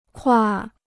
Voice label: "挎", Chinese

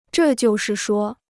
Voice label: "这就是说", Chinese

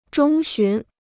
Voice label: "中旬", Chinese

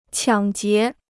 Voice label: "抢劫", Chinese

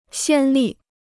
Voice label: "先例", Chinese

